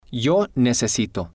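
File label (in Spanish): yo necesito, spanish, male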